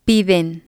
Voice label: "piden", spanish